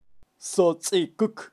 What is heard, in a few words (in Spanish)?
"sotzikuk", kaqchikel, pronunciacion de animales